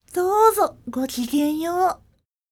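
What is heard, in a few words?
female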